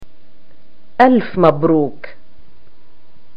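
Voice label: "greeting marraige", Palestinian Arabic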